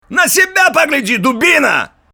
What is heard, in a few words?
russian video games